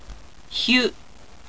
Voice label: "hyu", Japanese